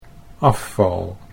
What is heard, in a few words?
"afval", Dutch